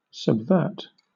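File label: "subvert", British English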